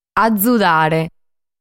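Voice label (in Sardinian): "Azudare", Sardinian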